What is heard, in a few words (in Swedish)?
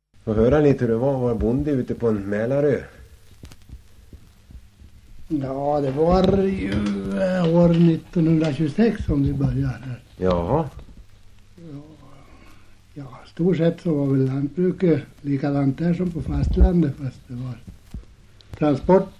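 Västerås-Barkarö Västmanland, Svenska sagor, dialekter; Folkminnen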